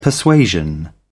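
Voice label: "persuasion", British English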